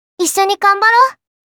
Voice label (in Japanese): Positive "Issho Ganbarou", Kawaii Voice